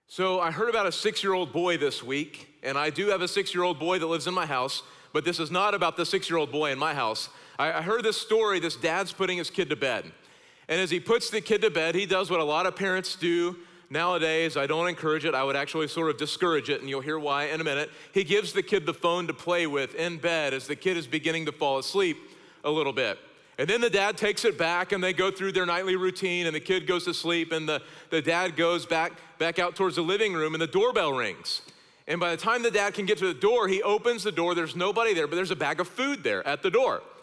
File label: Sermon English